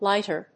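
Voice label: "lighter", English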